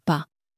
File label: "pas", common french words